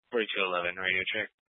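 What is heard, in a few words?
Sheriff Dispatch, Scanner audio recording archive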